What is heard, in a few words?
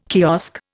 "kiosk", Indian